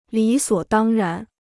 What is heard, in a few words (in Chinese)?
"理所当然", Chinese